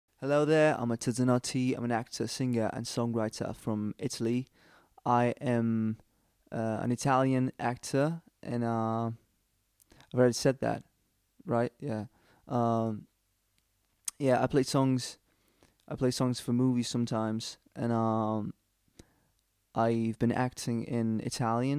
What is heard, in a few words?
ENGLISH BRITISH